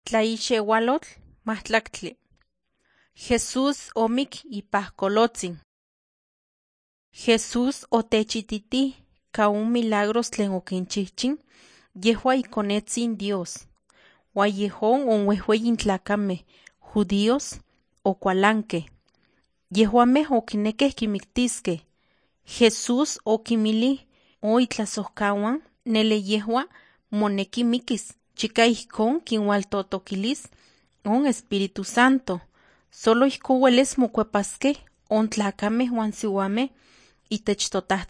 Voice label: nahuatl guerrero tlapa; the bible league nt drama